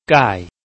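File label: "gai", Chinese, tone 4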